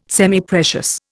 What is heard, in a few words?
"Semiprecious", Indian